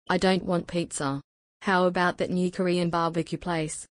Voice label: female